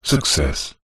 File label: science fiction computer voice male says success